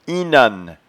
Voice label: "inhanne", patois jura

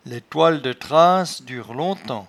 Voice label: "laitoiledetrasse", patois jura

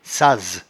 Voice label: "saze", patois jura

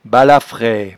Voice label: "balafraie", patois jura

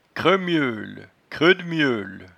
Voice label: "creumieule", patois jura

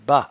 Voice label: "bat", patois jura

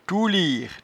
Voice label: "touliere", patois jura

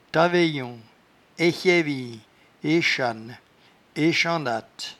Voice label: "taveyon", patois jura

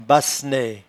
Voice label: "bassenaie", patois jura

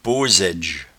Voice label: "posaidge", patois jura

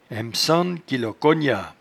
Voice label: "emesannequilocognas", patois jura

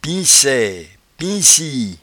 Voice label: "pincaie", patois jura

